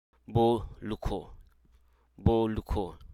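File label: Hruso Aka; cash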